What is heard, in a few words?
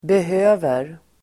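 "behöver", Swedish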